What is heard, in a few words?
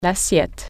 plate, french